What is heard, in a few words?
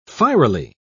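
"fierily", English, British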